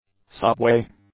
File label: "subway", english; nouns